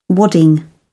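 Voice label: "wadding", British English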